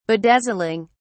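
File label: "bedazzling", British English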